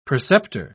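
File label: "preceptor", British English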